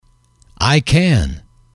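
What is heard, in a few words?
i can, English, male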